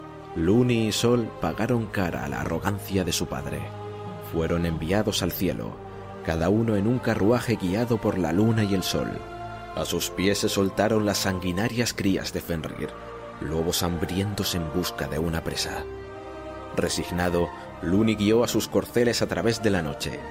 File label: SPANISH